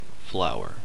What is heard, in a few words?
"flower" m